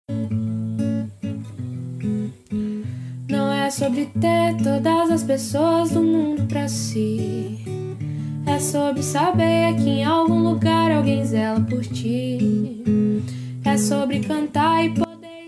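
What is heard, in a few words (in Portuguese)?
ANIV NEUTRO male, Telemensagem Aniversário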